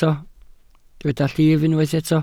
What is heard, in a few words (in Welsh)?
"llif", Welsh